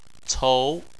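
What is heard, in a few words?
"cou" second tone, Chinese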